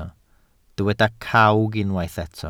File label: "cawg", Welsh